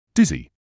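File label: "dizzy", British English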